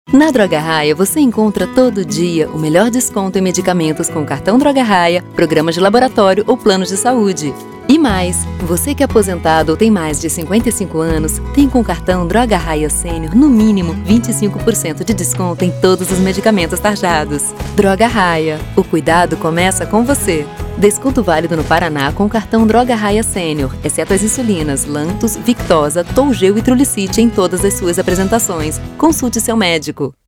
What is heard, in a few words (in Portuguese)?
SPOT; DROGARAIA